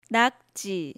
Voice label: "Nakji", Korean